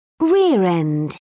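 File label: "rear end", British English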